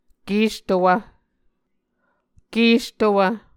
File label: "kestowv", Muscogee